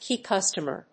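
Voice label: "key customer", English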